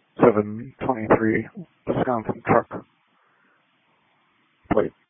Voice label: Sheriff Dispatch